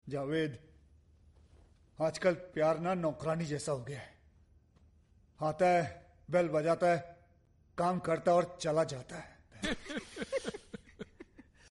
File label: attitude hindi shayari